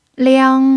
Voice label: "liang1", chinese pinyin